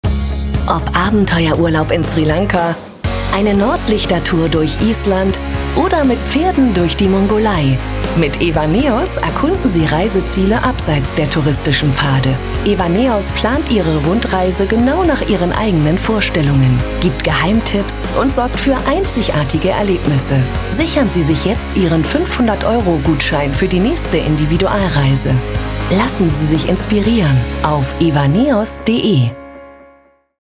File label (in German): Funkspot; Evaneos Reisen